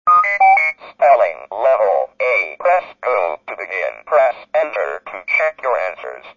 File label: Spelling level A, speech